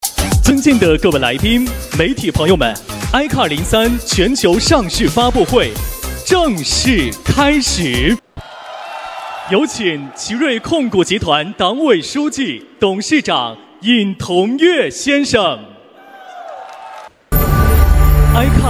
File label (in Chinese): 男 旁白 全球上市发布会画外音; 奇瑞ICAR-03